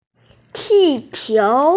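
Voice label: "qiqiu", Mandarin Chinese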